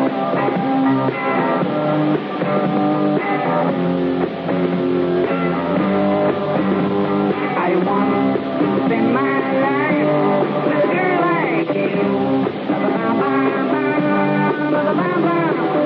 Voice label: Radio Scotland Jingle

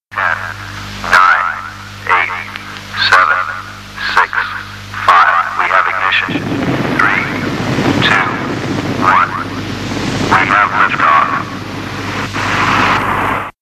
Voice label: Jingles 10-1 Countdown

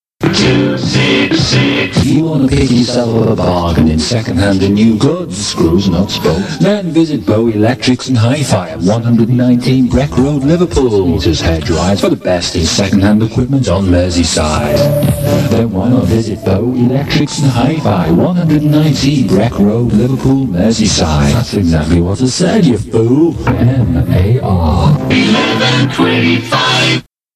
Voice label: adverts; Bow Electrics

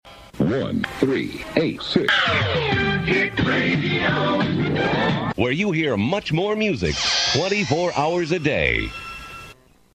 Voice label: hit radio, Dry Voiceovers; MAR 1386 AM & 105 FM

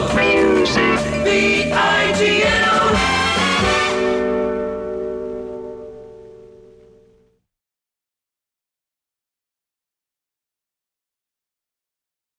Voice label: Pirate Radio London Jingles The New Sound Promo; Kenny Everett; (1966)

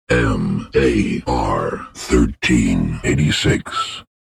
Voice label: DEEP PITCH; MAR 1386; Dry Voiceovers

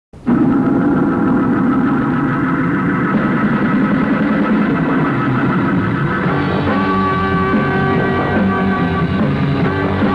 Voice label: bat shirt promo; Big L Bat Shirt promo (by Kenny Everett); Offshore Pirates

